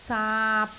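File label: "saap3", Cantonese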